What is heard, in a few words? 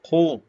short voyels arabic alphabet